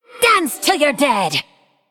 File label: Female Undead Attack